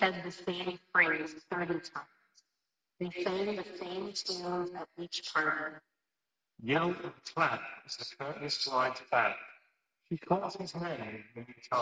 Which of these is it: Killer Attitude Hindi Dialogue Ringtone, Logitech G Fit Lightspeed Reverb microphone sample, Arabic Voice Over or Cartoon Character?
Logitech G Fit Lightspeed Reverb microphone sample